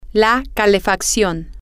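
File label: heat, spanish, female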